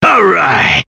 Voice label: "all right" cartoon